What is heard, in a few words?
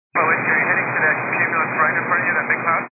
122 725MHz, scanner radio